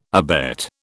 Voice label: "abet", British